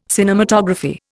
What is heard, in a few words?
"cinematography", Indian